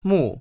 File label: "目", Chinese